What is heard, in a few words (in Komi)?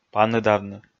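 "паныдавны", Komi, male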